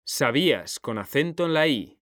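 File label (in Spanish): "sabías", male; mekanta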